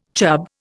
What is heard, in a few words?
"chub", Indian